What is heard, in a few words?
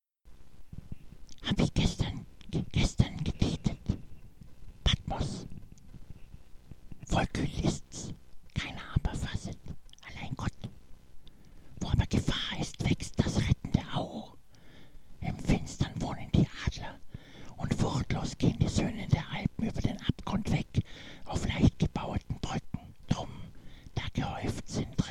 patmos fluester compressor; hoelderlin